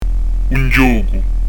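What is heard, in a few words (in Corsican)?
un ghjocu, Corsican; dizco grammatica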